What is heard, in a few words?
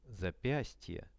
"zapiastie", Russian; запястье